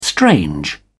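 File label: "strange", British English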